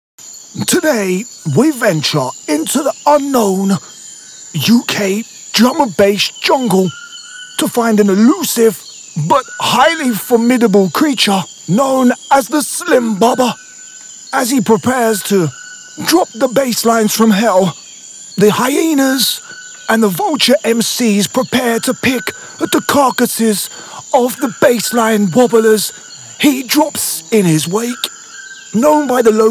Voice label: radio jingle; DNB